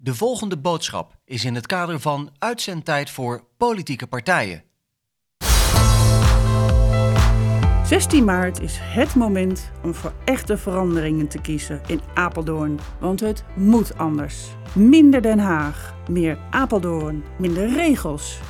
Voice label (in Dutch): Radiospot; GB; Apeldoorn